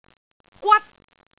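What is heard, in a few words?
"gwat1", Cantonese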